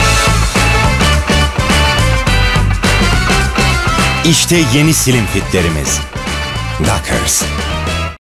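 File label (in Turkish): enerjik tempolu, seslendirme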